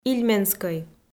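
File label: "ильменскӧй", Komi-Zyrian, female; audio dict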